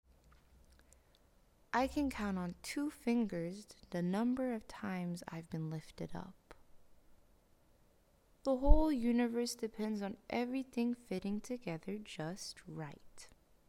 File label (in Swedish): karaktär engelska